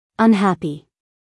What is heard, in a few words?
"unhappy", us female